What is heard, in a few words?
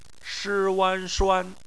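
"shuan", Mandarin Chinese; phonetics; bpmf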